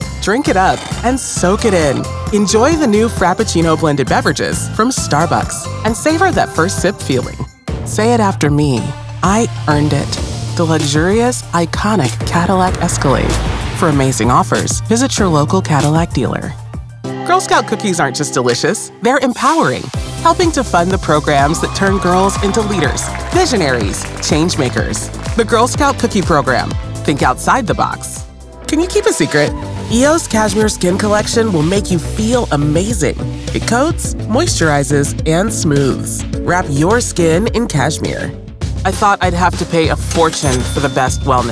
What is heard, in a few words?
Radio Commercials, African-American, authoritative, Conversational Female Voice Over; Corporate; Diverse Retail Samples